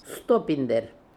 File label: "Stopinder", pronounciations; Fourth Way; Beelzebub